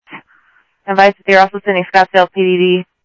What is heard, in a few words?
Sheriff, scanner; MCSO - WEST Sheriff-WestCnty; ThompsonPeakScot